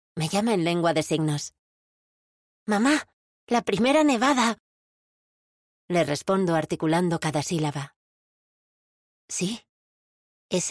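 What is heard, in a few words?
audiobook; AUDIOLIBRO HOZUKI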